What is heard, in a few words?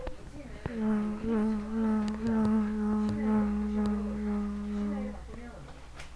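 "low", spoken word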